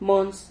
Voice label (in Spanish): "mons", Silabas